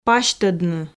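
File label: "пасьтодны", Komi, female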